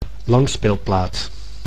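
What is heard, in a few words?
"langspeelplaat", Dutch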